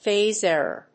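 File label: "phase error", English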